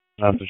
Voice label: FIRE OPS, p25

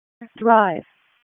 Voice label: WC EMS ALERT, p25

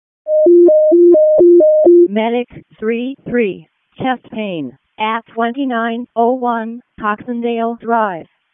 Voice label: WC EMS ALERT, p25; wake

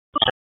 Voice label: Raleigh Police Northeast District Tac, p25

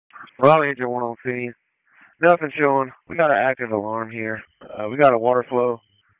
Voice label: FIRE OPS, p25; FIRE OPS 1; wake p25

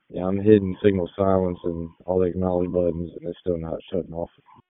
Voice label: FIRE OPS, p25; wake

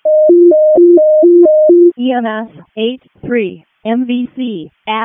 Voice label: EMS ALERT

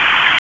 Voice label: Police Southeast District Tac; wake p25

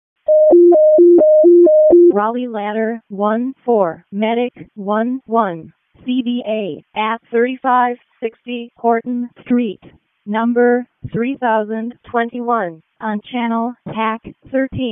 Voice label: EMS ALERT, p25

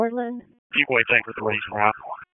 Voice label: PS TAC, p25

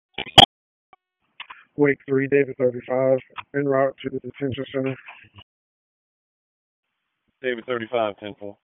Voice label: WSO DISP 3, P25 recordings; wake p25

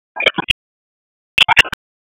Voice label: Police Southeast District Tac; Raleigh; Tac 1; wake

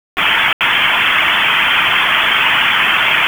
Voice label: EMS ALERT; wake; p25

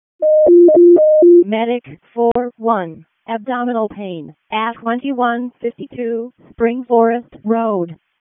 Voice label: EMS ALERT, p25; wake

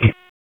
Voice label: Raleigh Police Southwest District Tac, p25; wake

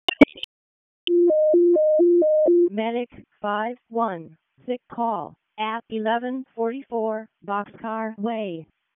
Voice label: EMS ALERT, p25; wake

